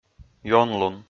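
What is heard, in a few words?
"ёнлун", Komi, male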